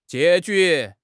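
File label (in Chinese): "结局", Chinese; HSK6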